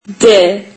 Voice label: turkish alphabet d

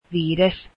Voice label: finnish fifth

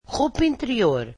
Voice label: portuguese underwear

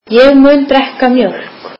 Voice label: icelandic I will drink milk

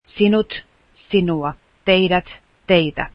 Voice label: you, finnish

